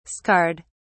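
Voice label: "scarred", British English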